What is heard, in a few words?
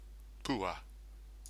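"pua", Māori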